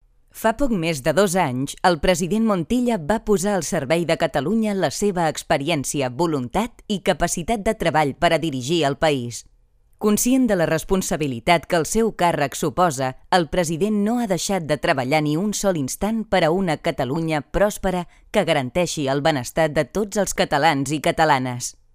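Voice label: Catalan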